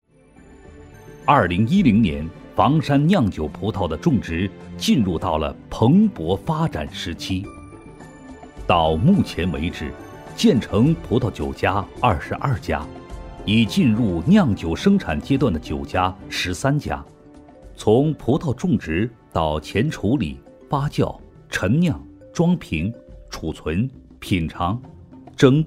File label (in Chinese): 【专题】舌尖体配音葡萄酒产业男-舌尖讲述